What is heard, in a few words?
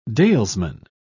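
"dalesman", British English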